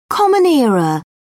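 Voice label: "common era", British English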